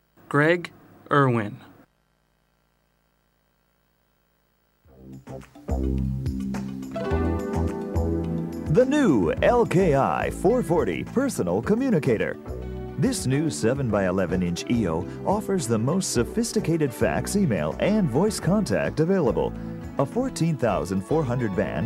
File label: usa man; MediaVoix; vice sample